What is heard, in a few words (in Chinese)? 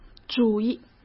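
"主意", Mandarin Chinese; hsk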